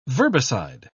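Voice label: "verbicide", British English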